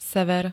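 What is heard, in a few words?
"sever", Slovak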